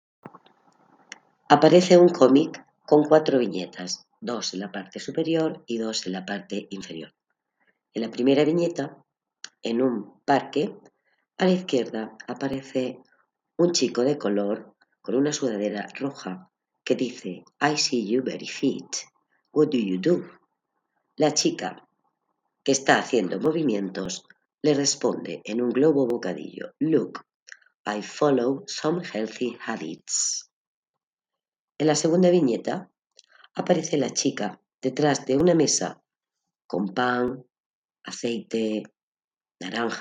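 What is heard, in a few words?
count to five in french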